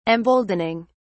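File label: "emboldening", British English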